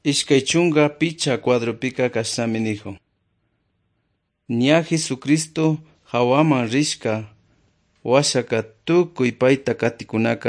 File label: good news quichua otovalo